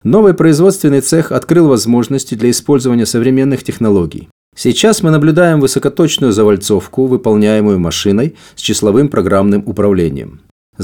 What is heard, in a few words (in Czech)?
ruština